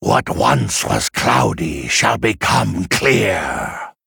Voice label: Male Gnoll Play